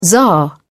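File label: "tzar", British English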